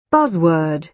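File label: "buzzword", British English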